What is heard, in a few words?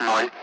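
Speech Russian; Allied Flight; Digits; DCS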